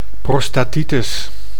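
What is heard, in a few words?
"prostatitis", Dutch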